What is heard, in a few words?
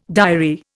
"diary", Indian